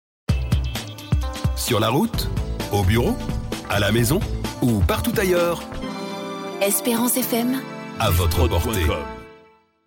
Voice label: Jingle; Esperance FM

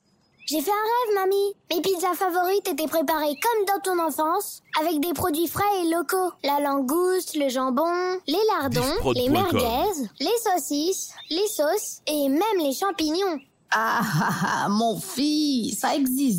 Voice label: Spot; Ma Pizza favorite 2025

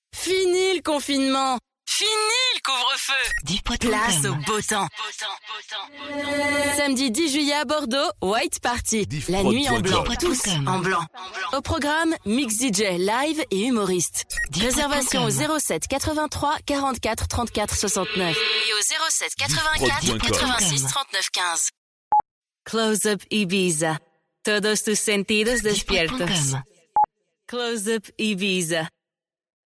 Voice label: FR US ES Drops A cappellas

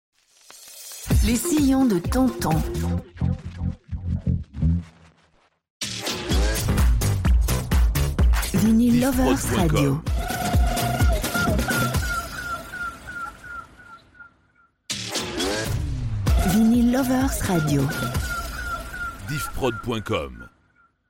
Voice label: Sweeps ID; Les Sillons de tonton

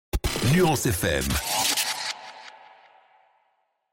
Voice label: FM ID; Nuance

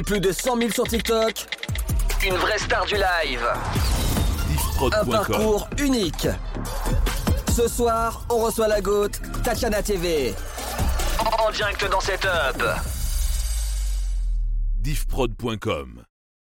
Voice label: Jingle Interview; Tatiana TV

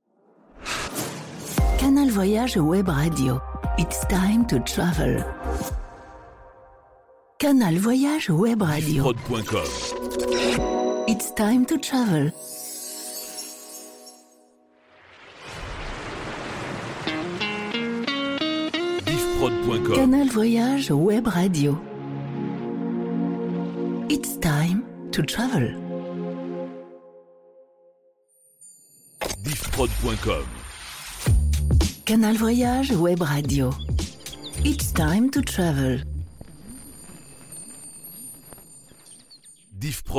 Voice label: Sweeps ID; Canal Voyage

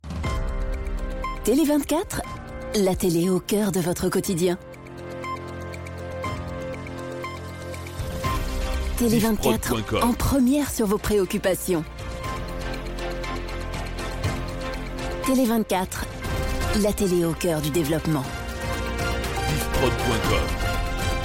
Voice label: Promo; Tele 24

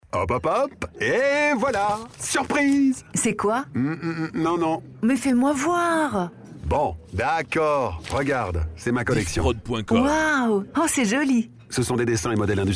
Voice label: Spot; OAPI

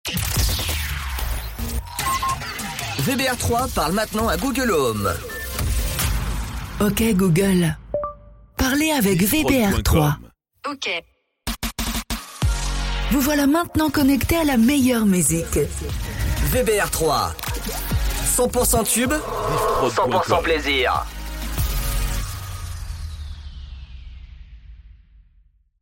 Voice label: Spot Google Home; Duo

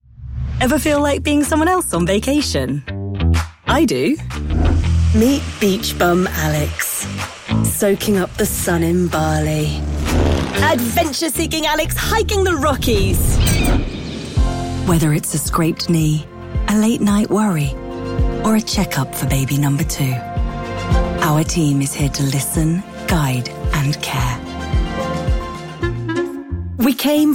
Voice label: UK Medley VO

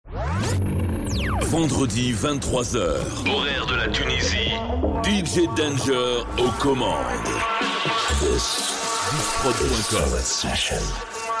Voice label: Radio Promo; Myra Radio; Solid Session

